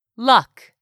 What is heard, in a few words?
"luck", English; 3000 words